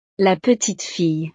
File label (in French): "la petite fille", frances; familia